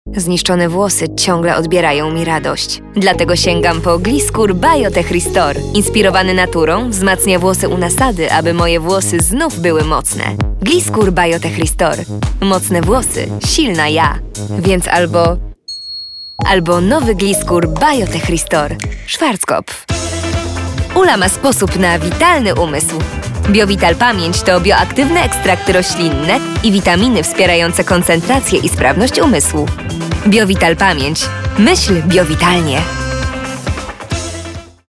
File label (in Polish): mix reklam